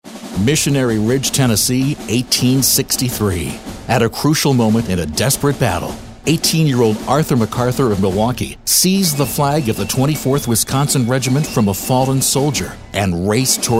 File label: storyteller